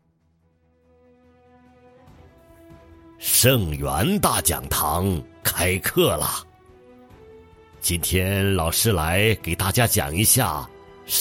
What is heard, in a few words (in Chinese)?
男,动画角色,老教授